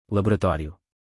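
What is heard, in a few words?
"laboratorio", Portuguese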